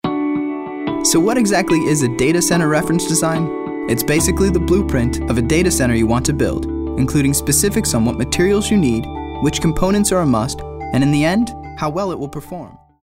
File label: informational